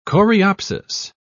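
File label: "coreopsis", British English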